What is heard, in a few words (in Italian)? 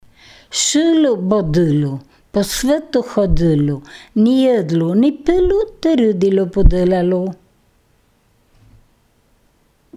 FILASTROCCA